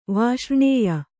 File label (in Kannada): "ವಾಷ್ರ್ಣೇಯ", Kannada